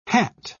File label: "hat", pronounce